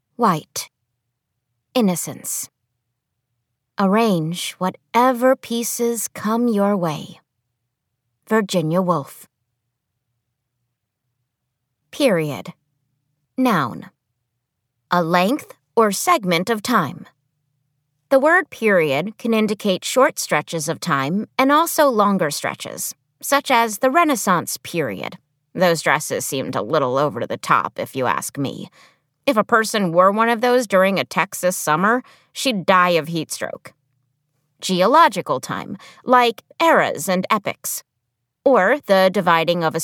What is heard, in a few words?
Audiobook Sample